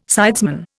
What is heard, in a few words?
"sidesman", Indian